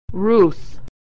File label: "Ruth", pronunciation